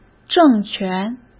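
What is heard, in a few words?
"政权", Mandarin Chinese; hsk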